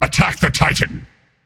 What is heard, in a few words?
vox attack; smite; thanatos